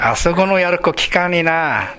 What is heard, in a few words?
"yaroko", minamiyamagata